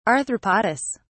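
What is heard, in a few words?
"arthropodous", English, British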